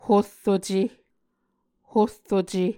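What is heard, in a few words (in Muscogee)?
"horruce", Muscogee word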